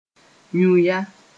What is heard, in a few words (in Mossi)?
"yũya", moore